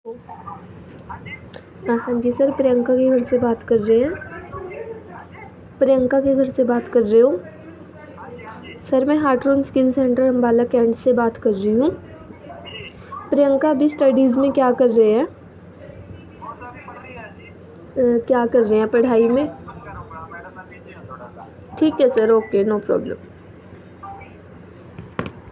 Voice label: call recording